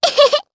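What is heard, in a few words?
Vox Happy, Japanese; kingsraid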